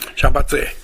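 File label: "yabatui", Minamiyamagata dialect Japanese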